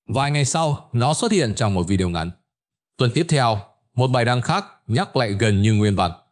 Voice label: narration; Vi Sao Ban De Tin Mot Dieu Khi Nghe No Lap Lai Nhieu Lan; StickerMan